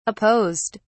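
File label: "apposed", British English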